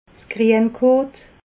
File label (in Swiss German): "grienkot", alsace